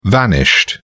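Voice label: "vanished", British English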